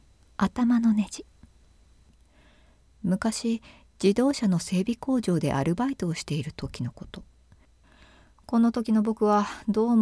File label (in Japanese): 朗読してみた